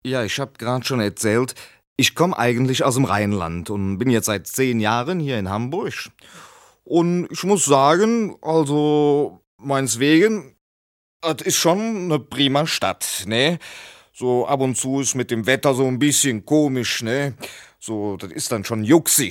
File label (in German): Dialekt; LOFT VOICES